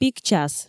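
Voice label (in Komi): пик час, Komi, female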